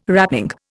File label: "wrapping", Indian